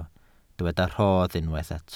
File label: "rhodd", welsh